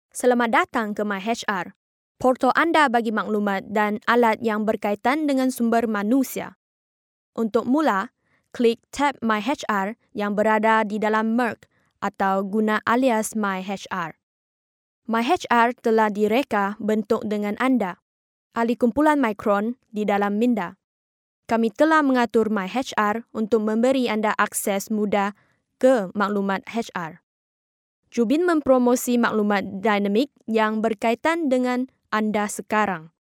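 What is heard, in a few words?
Malay, Malaysia, female; voice over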